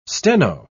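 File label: "steno", British English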